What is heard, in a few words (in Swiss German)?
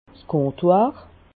"comptoir", Alsace